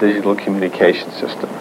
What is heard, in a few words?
LoFi Phrases, LoFi Vocals; Vengeance Samples